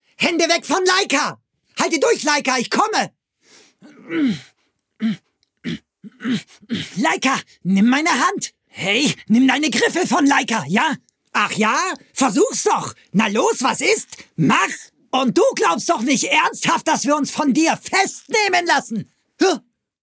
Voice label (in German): Anime